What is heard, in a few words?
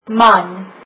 husband, afrikaans